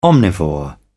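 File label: "omnivore", English, British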